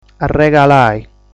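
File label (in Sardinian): "Arregalai", Sardinian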